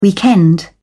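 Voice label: "weekend", British English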